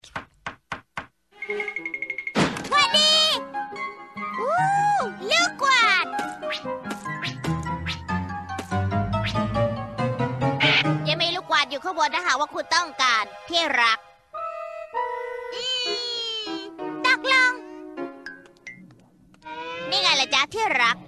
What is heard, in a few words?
thai animation